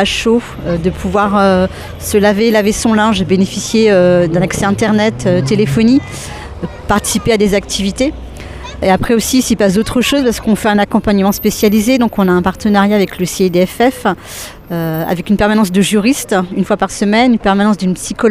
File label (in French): bus femme